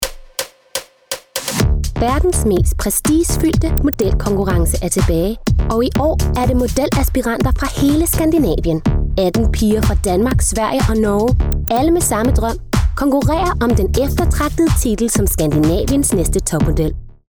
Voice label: female danish commercial; voices; devine voices

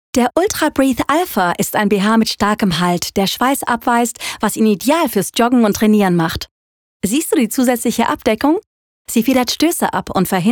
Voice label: female german commercial; nike

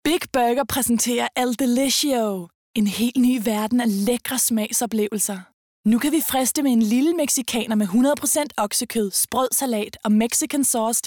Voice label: female danish commercial

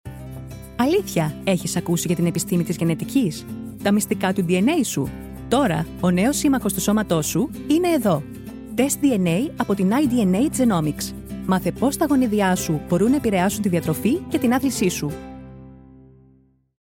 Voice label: female Greek commercial

